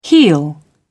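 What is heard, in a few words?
"heel heal", English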